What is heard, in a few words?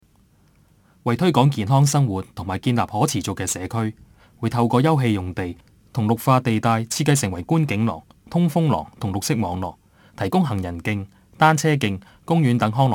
CANTONESE